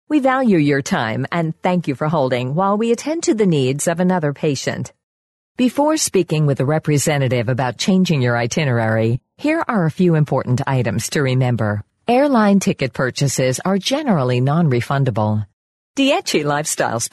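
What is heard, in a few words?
US English, female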